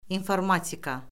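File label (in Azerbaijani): "informatika", Azerbaijani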